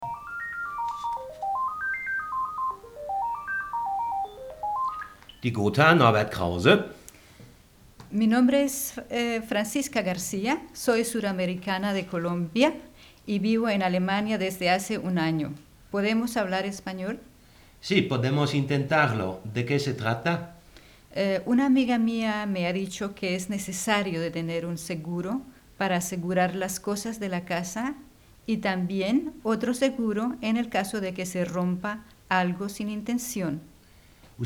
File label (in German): spanisch